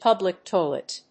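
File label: "public toilet", English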